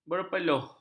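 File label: "berpeluh", Malay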